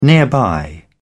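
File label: "nearby", British English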